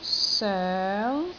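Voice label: "soeng2", Cantonese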